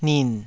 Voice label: "nin3", Taiwanese syllable